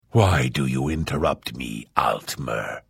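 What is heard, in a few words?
Hello, Vo; Morrowind GOTY GOG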